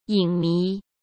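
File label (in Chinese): "影迷", Mandarin; chinese-flashcards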